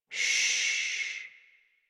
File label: Horse Calm Adult; Warframe; Duviri